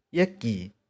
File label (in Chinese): "ih4ki0", 玉環